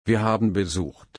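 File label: "besuchen", German, slow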